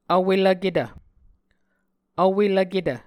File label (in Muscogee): "vwelvketv", Muscogee